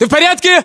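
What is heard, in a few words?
status check, battlechatter, Russian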